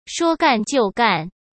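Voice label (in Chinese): "说干就干", Mandarin; chinese-flashcards; hsk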